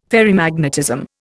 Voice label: "Ferrimagnetism", Indian